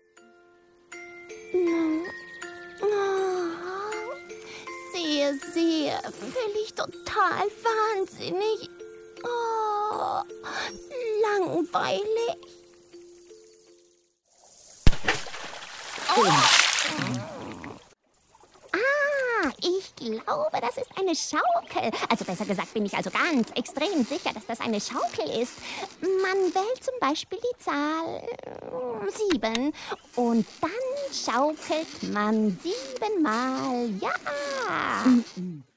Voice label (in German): Comic Frosch Zeichentrick